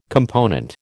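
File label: "component", American English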